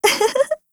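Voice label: mood, Japanese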